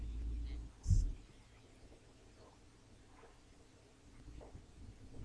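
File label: "clock", spoken word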